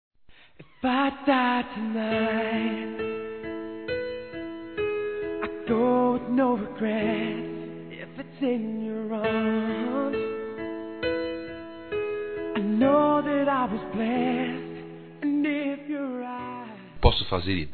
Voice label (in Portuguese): NEUTRO, masc; Aniversários; Aniversários diversos